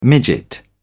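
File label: "midget", British English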